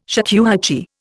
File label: "shakuhachi", Indian